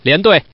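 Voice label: Man, consecutive pairs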